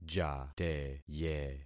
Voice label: "jateye", concat syllables; Affixing experiment